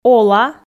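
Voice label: "ола", Komi, female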